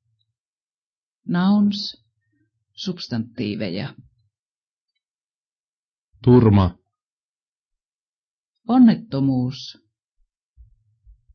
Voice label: Conversational Finnish; FSI